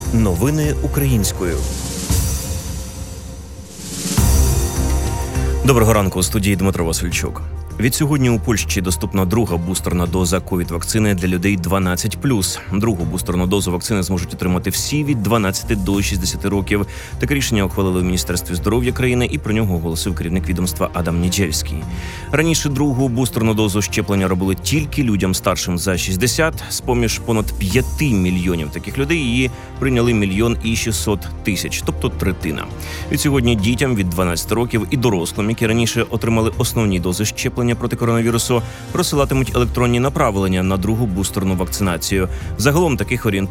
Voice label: Ukrainian News Morning